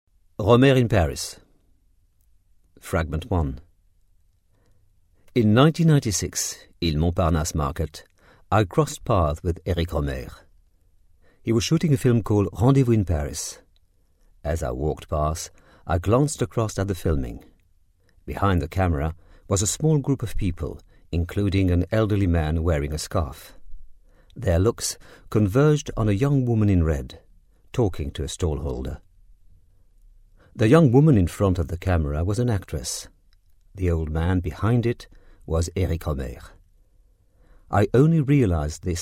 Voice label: English French Accent